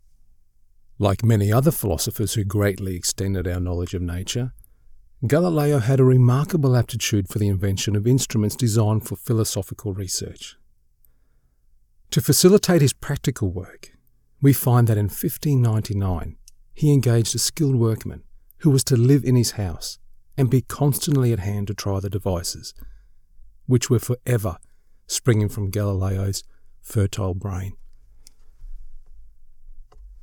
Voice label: Documentary Narrative

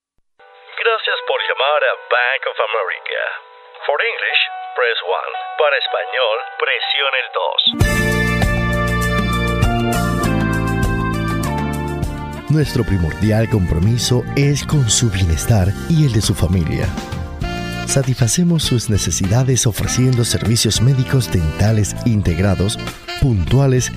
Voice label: On Hold IVR Spanish

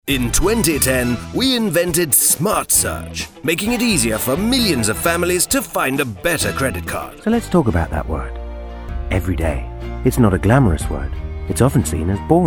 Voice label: loud

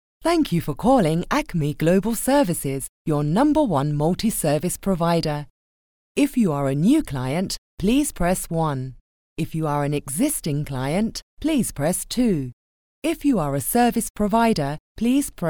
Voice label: ON HOLD MESSAGE

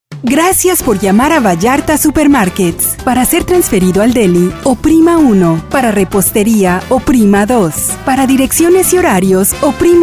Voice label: On Hold

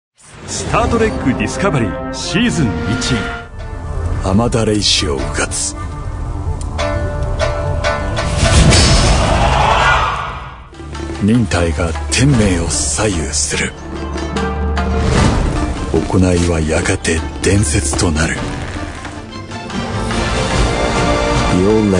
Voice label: trailer

